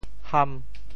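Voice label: "ham5", Teochew; 颔; hàn; 下巴颏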